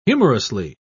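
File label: "humorously", English, British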